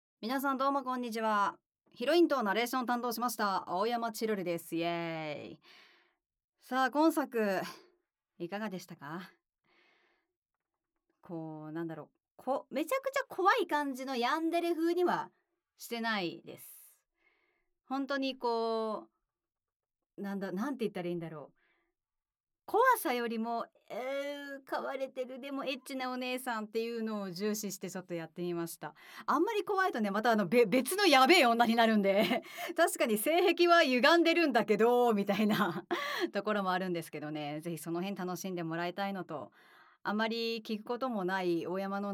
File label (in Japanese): フリートーク